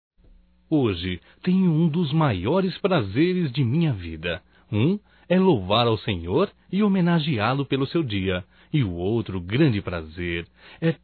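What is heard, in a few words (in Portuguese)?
NATAL ROMANTICO (Masculino); Natal e ano novo; Telemensagens 2017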